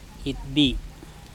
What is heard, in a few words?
"itbi", andamanese; yellow